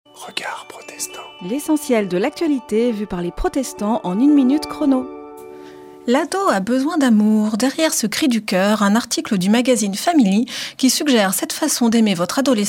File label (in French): Spot radio; Regards protestants